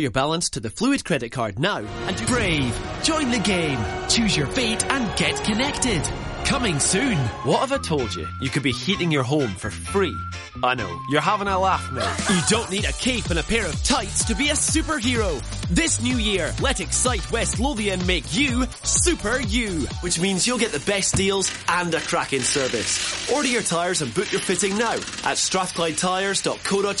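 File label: Scottish English male commercial; accent of scotland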